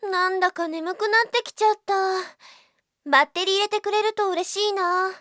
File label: battery low, Japan Anime Girls; Windows; Bing; Nanako